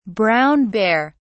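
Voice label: "brown bear", British English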